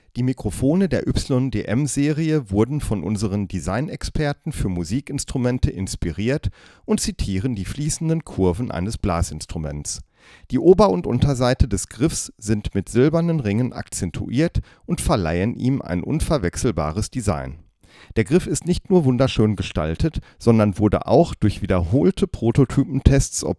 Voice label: Sennheiser e945